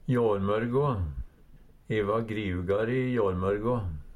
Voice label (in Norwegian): jårrmørgo, Numedalsmål; går morgon; Adverb